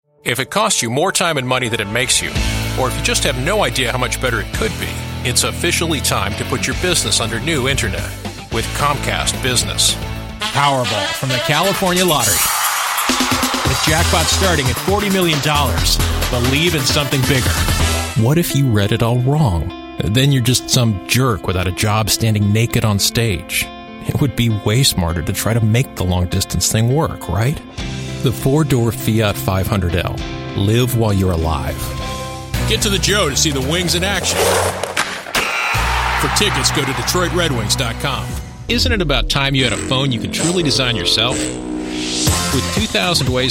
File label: english american commercial, clever; clever voice actors